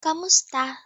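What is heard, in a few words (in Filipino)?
"kamusta", Tagalog pronunciation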